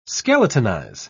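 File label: "skeletonize", British English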